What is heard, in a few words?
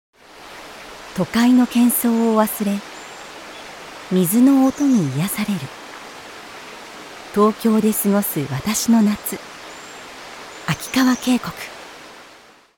japanese others